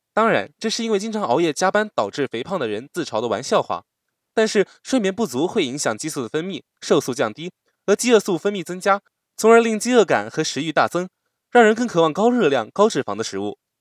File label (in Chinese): 卡通俏皮